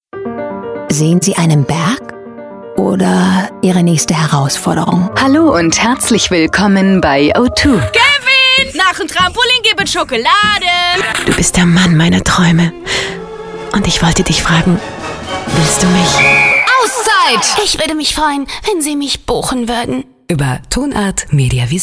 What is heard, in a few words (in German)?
Sprecherinnen